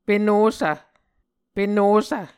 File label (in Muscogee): "penossv", Muscogee